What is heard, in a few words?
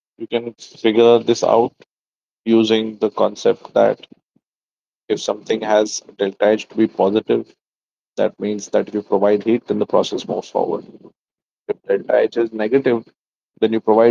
voice message